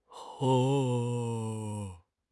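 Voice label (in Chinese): "哄", Chinese; HSK6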